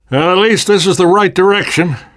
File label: world hospital, namvet, survivor voice